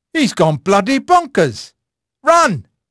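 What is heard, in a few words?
English male; Pirates Of The Caribbean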